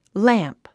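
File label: "lamp", English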